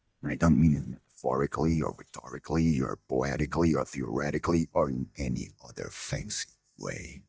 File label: eng adult male; Death; Puss & Boots